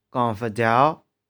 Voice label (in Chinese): "kong2feh4jih4ghau1", 玉環